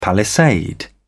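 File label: "palisade", British English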